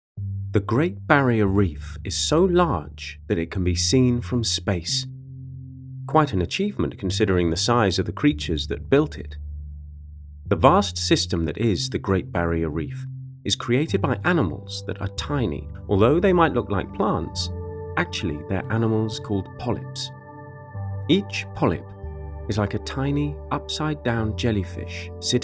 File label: Narrative; Great Barrier Reef